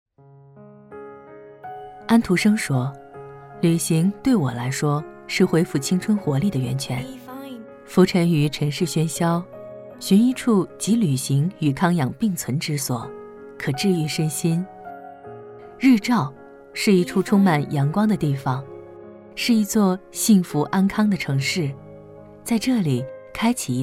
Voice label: 女 旁白; 日照康养

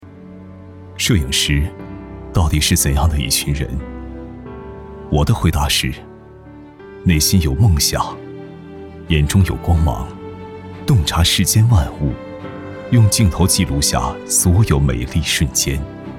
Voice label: 男 走心; 摄影师

